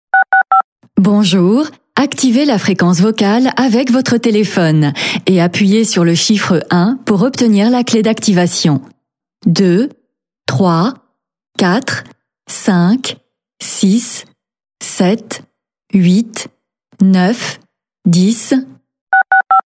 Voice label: france, woman